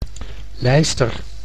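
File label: "lijster", Dutch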